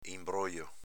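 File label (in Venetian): "inbrojo", Veneto dialect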